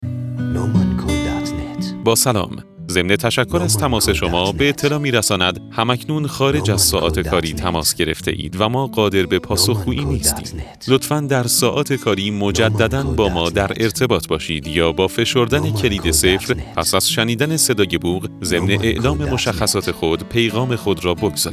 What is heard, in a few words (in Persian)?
منشی تلفنی سانترال برای ساعات غیر کاری با گوینده مرد